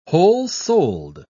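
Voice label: "whole-souled", British English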